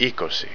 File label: "twenty", Greek